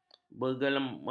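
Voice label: "bergemerlapan", Malay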